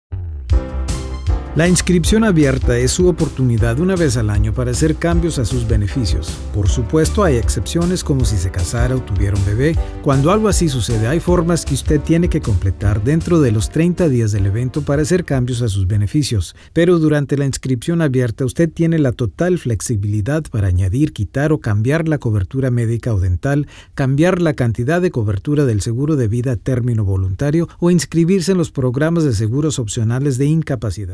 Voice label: Spanish VO